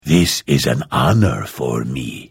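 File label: Hello, Vo; Morrowind GOTY GOG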